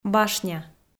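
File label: "башня", Komi, female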